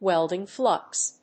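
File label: "WELDING FLUX", English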